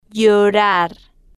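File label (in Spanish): "llorar", spanish, female, slow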